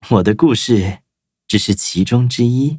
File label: upgrade, zh-hant; cookie run